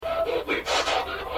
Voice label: Ghost box session, "Leave"; Paranormal Investigation; Plank House; First Floor; “Get Out”; Another “Get Out”